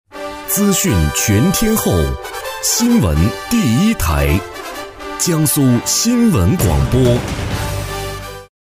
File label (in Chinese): 男 新闻片头; 磁性稳重 自然诉说; 江苏新闻广播